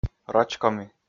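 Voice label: "роч-коми", Komi, male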